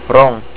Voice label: "TRAIN", Estonian